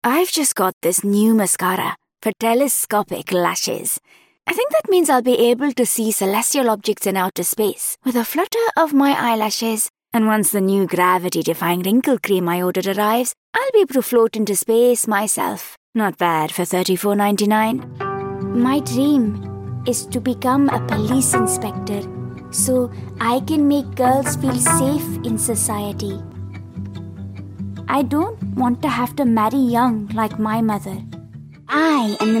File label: INDIAN ENGLISH